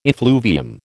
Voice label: "effluvium", American

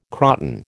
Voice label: "croton", American

